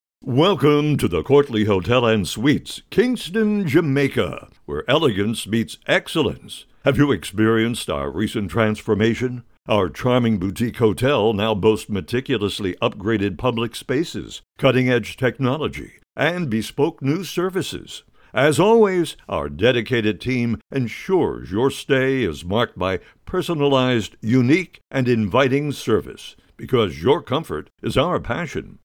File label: Male